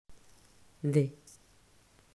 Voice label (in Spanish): pronunciacion fonema d; mausschool